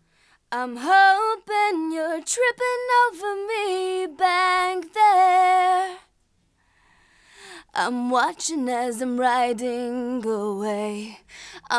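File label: b172a vocal, Compressors, Black Lion Audio B172A; Hybrid F.E.T. Opto Compressor; B172A 2A into 17 Female Vocal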